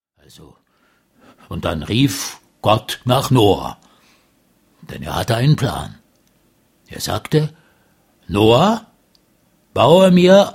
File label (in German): Erzaehler